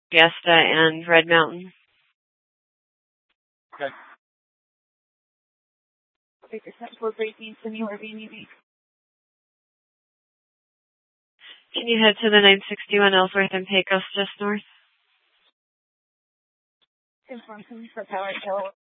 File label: Dispatch, Mesa PD